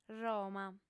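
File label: "roma", female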